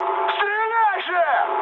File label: attack position, mood med, speech ru; War Thunder